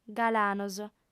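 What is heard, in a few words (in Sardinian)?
"galanos", female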